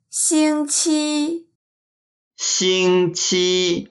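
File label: xing1 qi1, Chinese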